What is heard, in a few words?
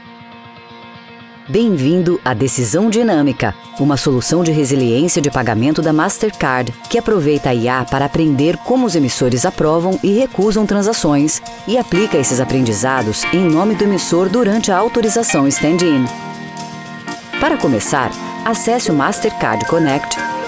portuguese brazilian explainer videos